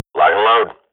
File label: radio bot start, Counter-Strike swat; Global Offensive; player vo